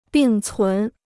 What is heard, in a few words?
"并存", Chinese